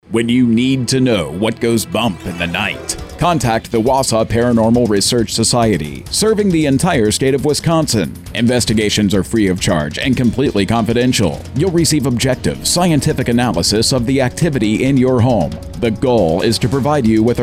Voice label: Advertisement